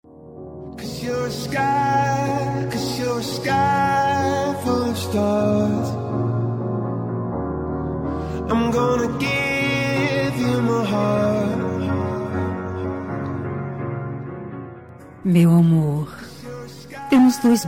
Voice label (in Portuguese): dia dos namorados aniversário, voz feminina